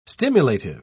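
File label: "stimulative", British English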